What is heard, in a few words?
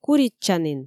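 "куритчанін", Komi, female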